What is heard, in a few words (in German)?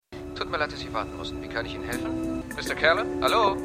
Telefonstimme; Stimmproben